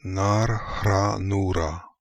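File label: "Nar Khra Noora", pronunciations; Fourth Way; Beelzebub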